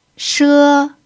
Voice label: "she1", mandarin pinyin